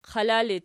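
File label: "qala" ergative, Archi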